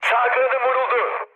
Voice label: right wing, mood high, speech tur; War Thunder